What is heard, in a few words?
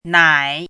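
"nǎi", Chinese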